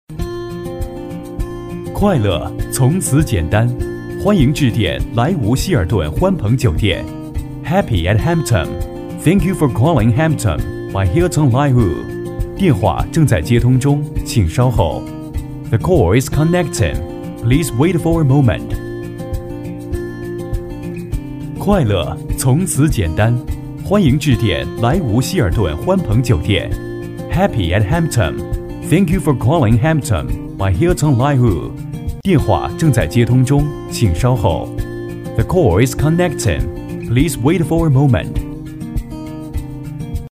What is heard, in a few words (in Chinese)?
男英 其他 彩铃 中英彩铃 酒店; 英语; 中英彩铃希尔顿欢朋酒店